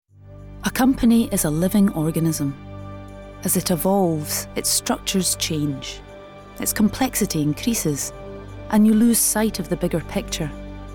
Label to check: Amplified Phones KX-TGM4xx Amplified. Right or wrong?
Wrong